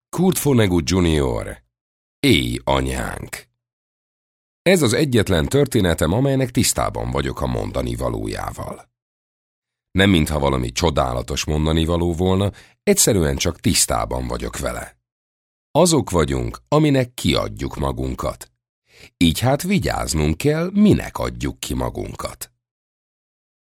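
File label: Hungarian narration, mann; ungarsk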